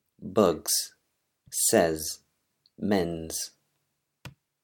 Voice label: english pronunciation rules